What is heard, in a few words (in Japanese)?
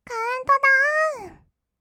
カウントダウン, kawaii voice; 音ゲー